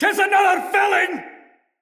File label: fearful cry afar, soldier male; Dendrit